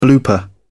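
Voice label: "blooper", British English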